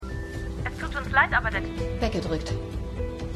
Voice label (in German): Telefonstimme; AlaskaDaily